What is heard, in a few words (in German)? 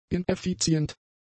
"ineffizient", German; adjectives